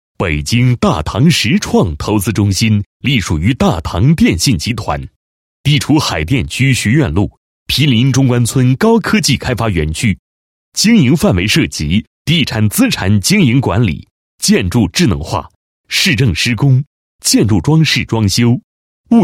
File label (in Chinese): 男 公司 简介; 大唐实创投资中心简介